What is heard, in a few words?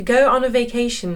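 English; partir en voyage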